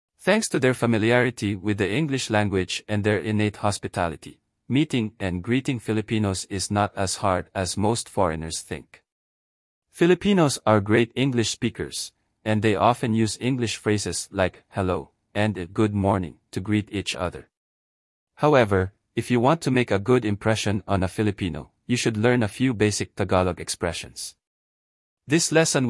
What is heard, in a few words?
Greetings, male